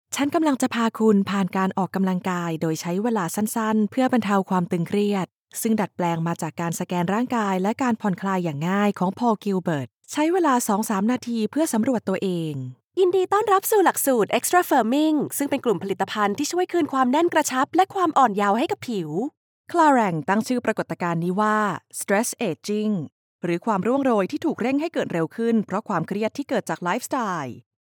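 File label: Thai, Thailand, female; thai voice over talent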